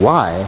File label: "y", male British